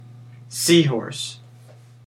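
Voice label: "seahorse", American English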